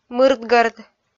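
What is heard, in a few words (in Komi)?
"мырдгӧрд", Komi, female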